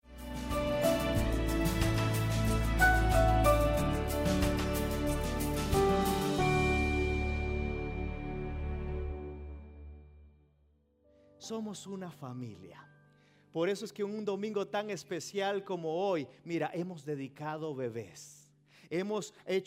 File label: Spanish Sermon